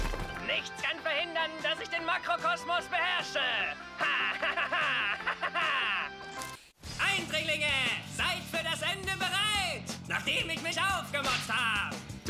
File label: Animation; Teen Titans Go